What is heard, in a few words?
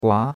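"gua2", Chinese; Cours-de-Chinois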